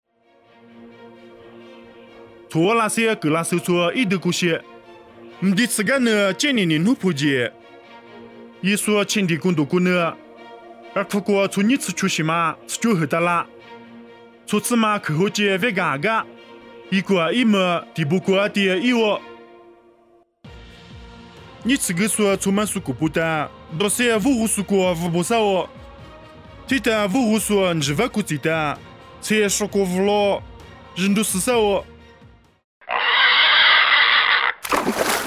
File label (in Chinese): 凉山彝语; 以色列格拉森; nuosu